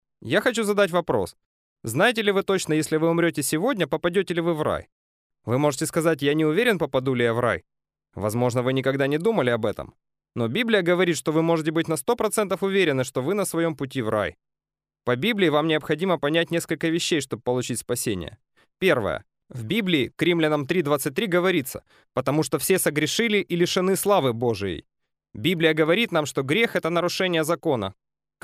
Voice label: male russian voice over talent narrative